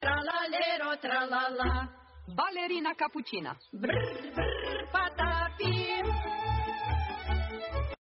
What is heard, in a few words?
Romanian Grannies Brr Brr Patapim; italianbrainrot